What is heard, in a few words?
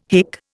"hick", Indian